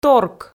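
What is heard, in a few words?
"торк", Komi, female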